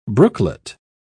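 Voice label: "brooklet", British English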